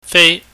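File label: "fei", Cantonese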